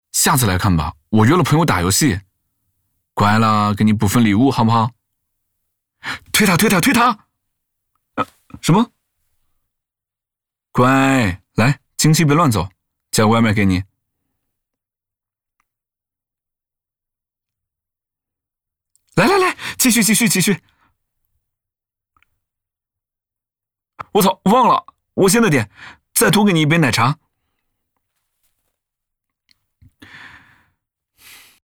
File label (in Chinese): 男 角色; 前任